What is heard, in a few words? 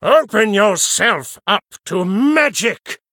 Male Human Play